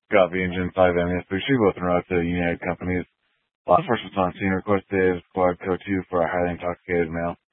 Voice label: Grand Junction Fire Department Dispatch

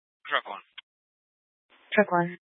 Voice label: Fire Dispatch, scanner

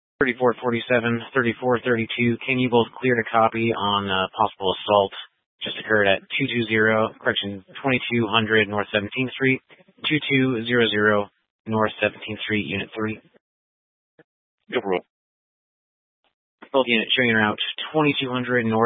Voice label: Police 1 Dispatch, scanner

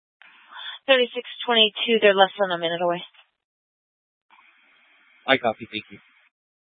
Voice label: Police Dispatch, scanner

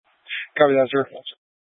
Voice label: Incident Tac 3, scanner

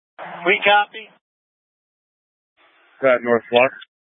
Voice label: Tactical Incidents, scanner

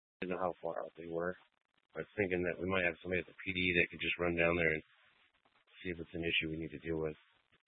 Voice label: Police Department Dispatch

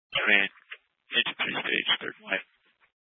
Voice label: Tactical Incidents, scanner

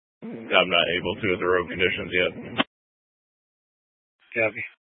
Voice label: Mesa County Sheriff Dispatch

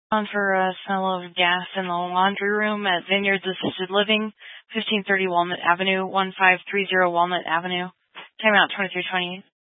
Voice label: Grand Junction Fire Department Dispatch

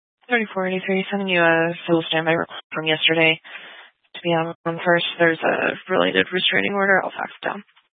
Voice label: Police Dispatch, scanner; Police 1 Dispatch

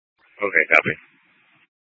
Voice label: Police Department Dispatch; Grand Junction

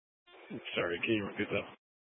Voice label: Sheriff Dispatch, scanner; Mesa County

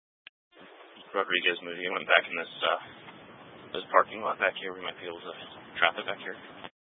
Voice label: Mesa County Sheriff Dispatch

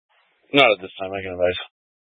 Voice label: Police Department Dispatch, scanner

